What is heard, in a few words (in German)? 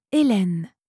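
"Elaine", französische Mädchennamen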